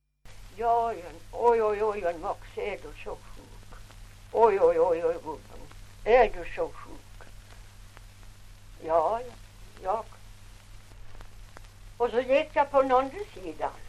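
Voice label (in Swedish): Ovansjö Gästrikland, svenska sagor dialekter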